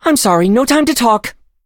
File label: touch, en; Cookie Run